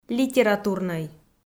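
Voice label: "литературнӧй" Komi, female; kpv audio dict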